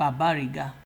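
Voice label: "babariga", Nigerian Pidgin, male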